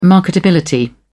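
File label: "marketability", English, British English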